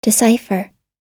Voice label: "decipher", us

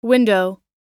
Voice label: window, us

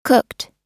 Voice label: "cooked", us

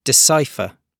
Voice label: "decipher", gb

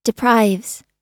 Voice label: "deprives", us

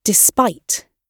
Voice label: "despite", gb

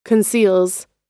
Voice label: conceals, us

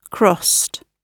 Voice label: "crossed", gb

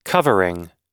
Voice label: "covering", us

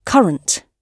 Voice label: "current", gb

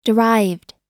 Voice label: "derived", us

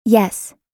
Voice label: yes, us

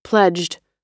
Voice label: "pledged", US

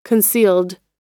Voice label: concealed, us

